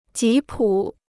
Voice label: "吉普", Chinese

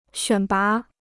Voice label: "选拔", Chinese

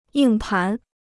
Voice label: "硬盘", Chinese

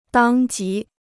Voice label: "当即", Chinese

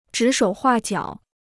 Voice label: "指手画脚", Chinese

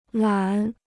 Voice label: "懒", Chinese

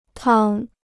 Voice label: "汤", Chinese

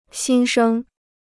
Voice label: "新生", Chinese

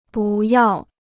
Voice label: "不要", Chinese

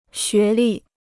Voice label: "学历", Chinese

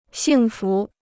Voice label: "幸福", Chinese

